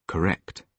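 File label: "correct", British English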